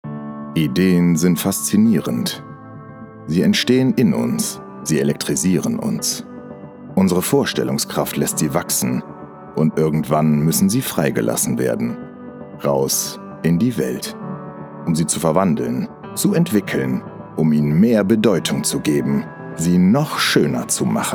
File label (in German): Werbung